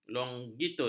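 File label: "longitud", Malay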